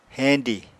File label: "heñdi", apatani